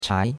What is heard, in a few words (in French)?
"chai2", chinois; Cours de Chinois